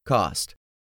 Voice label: "cost", English; 3000 words